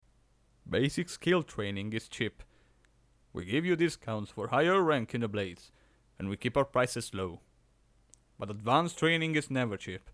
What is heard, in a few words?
high elf male, voice auditions